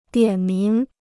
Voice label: "点名", Chinese